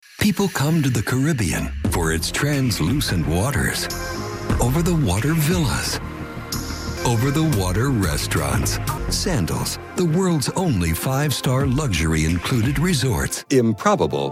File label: COMMERCIAL DEMO